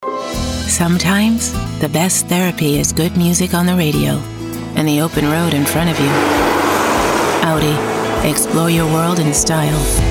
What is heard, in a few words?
english american automotive